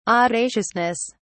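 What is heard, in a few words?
"audaciousness", British English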